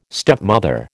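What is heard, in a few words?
"stepmother", American English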